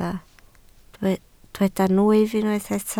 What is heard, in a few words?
"nwyf", Welsh